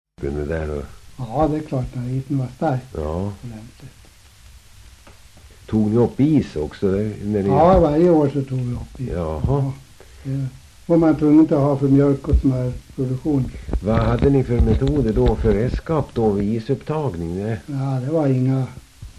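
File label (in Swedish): Västerås-Barkarö Västmanland, dialekter; Folkminnen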